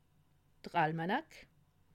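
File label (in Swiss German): "almanach", Alsatian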